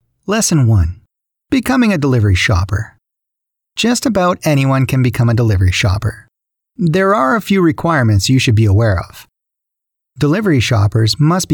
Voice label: eLearning, Voiceover